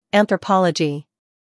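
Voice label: "scandal", American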